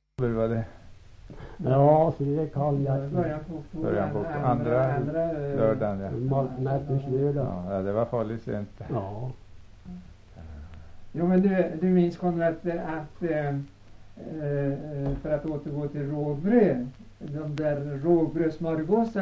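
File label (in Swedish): Högsjö Ångermanland, dialekter, svenska sagor; Folkminnen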